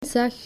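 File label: "zag", Dutch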